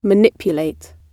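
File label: "manipulate", British English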